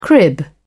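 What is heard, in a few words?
"crib", British English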